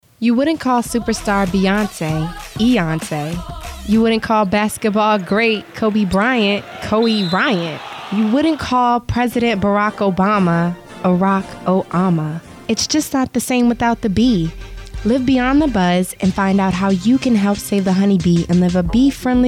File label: psa celebrity; cityhive